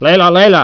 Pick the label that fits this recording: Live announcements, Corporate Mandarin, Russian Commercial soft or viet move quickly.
viet move quickly